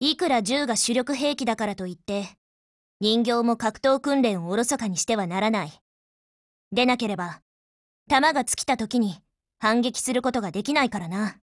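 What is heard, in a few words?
TIP Japanese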